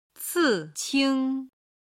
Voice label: "ciqing", Chinese

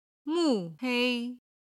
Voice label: "mu4 hei1", Chinese

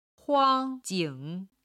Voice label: "huang1jing3", chinese

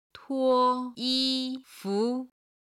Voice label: "tuo1 yi1 fu2", Chinese

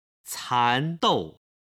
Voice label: "candou", Chinese

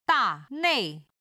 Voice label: "da nei", chinese

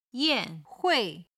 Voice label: "yan4hui4", Chinese

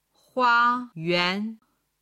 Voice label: "hua1yuan2", chinese

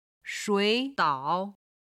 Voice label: "shui3 dao3", Chinese

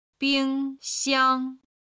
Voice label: "bing1xiang1", Chinese

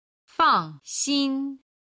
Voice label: "fang4xin1", Chinese

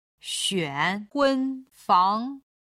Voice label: "xuan hun fang", Chinese

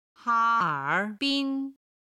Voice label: "ha1 er3 bin1", Chinese; chinese travel

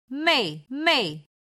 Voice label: "mei4mei5", Chinese

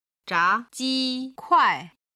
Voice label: "zha ji kuai", Chinese

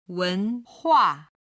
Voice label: "wen2hua4", chinese

